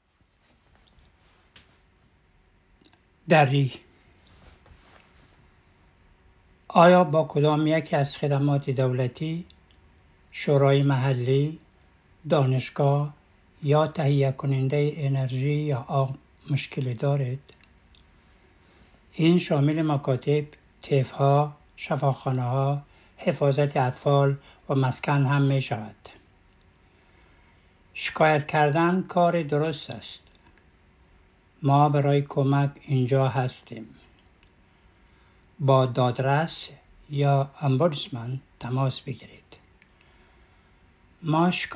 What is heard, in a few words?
Audio Dari